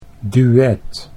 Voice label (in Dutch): "duet", Dutch